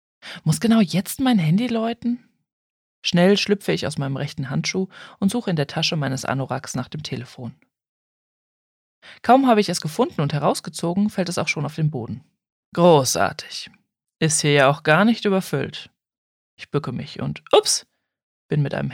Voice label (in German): Romance, hoerbuchsprecherinnen